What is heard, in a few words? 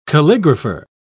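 "calligrapher", British English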